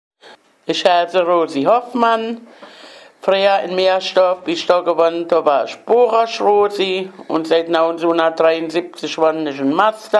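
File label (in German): vorstellung, O-Ton Hunsrück; Mörsdorf; stellt sich vor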